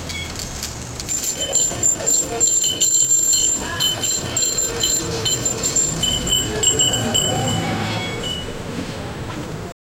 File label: fruit sellers calling, marketplace